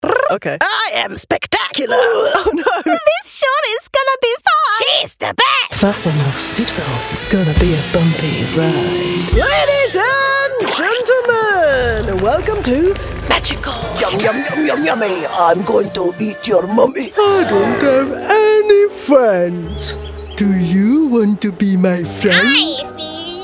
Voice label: ANIMATION VOICE

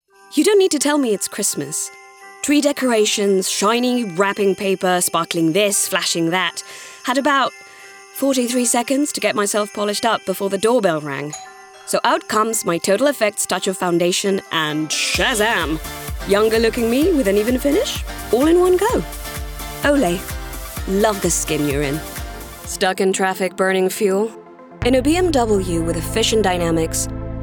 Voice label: Commercial

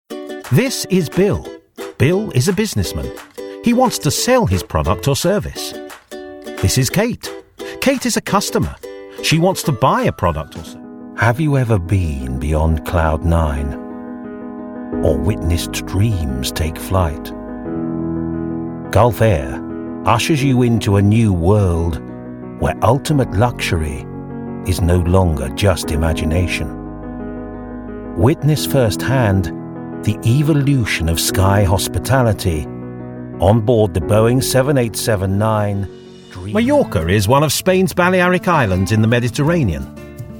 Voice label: Corporate

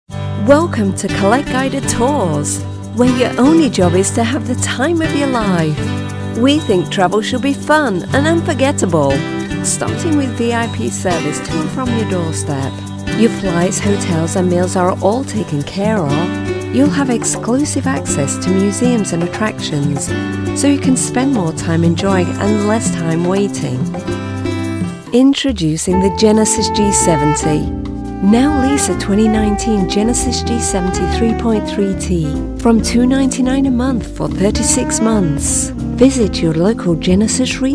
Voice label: Commercial

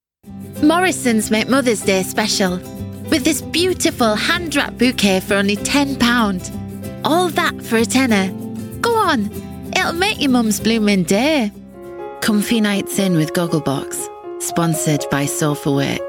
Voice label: Commercial

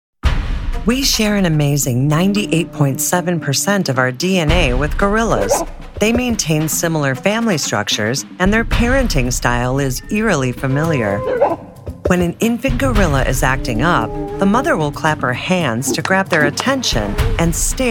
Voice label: Narration Documentary

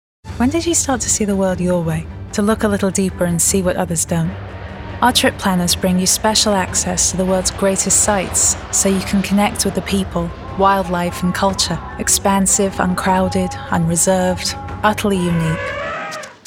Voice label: Commercial Travel and Leisure

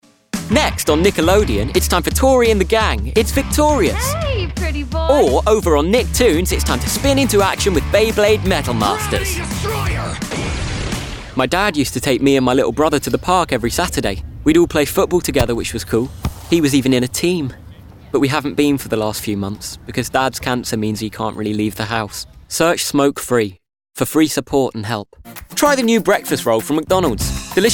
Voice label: Commercial

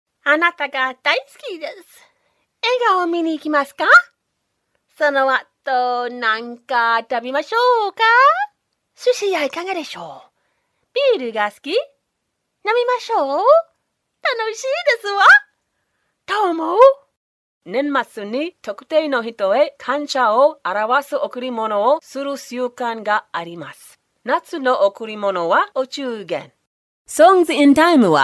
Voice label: JAPANESE corporate character compilation

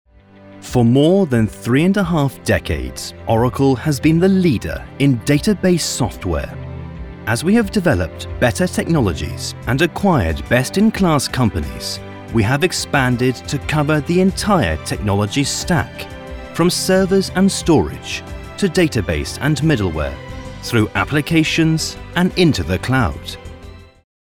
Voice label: Corporate

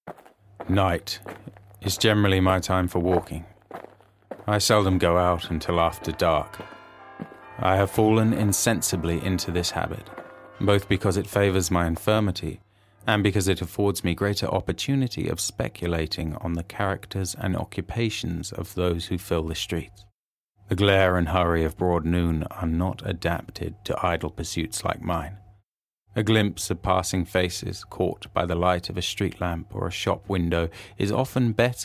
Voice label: Audiobook

